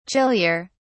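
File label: "chillier", British English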